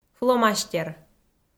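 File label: "фломастер", Komi, female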